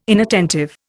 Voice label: "inattentive", Indian